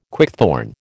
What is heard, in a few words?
"quickthorn", American